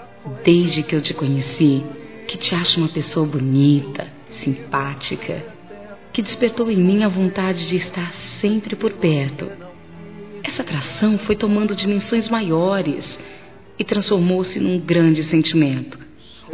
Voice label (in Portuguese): Ja faz Algum Tempo, Sensuais fem; Telemensagens